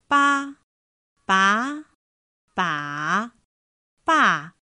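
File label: bā bá bǎ bà, Mandarin Chinese pinyin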